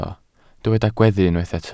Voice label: "gweddu", Welsh